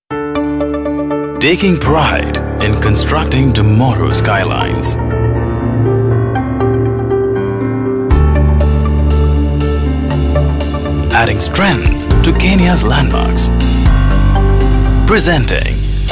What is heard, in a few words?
Television Ad; Simba